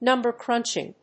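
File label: "number crunching", English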